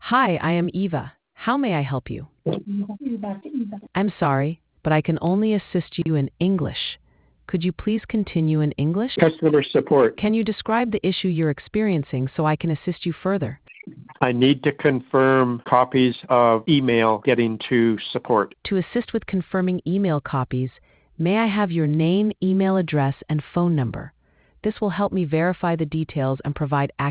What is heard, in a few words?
conversation